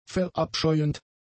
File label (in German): "verabscheuend", German; adjectives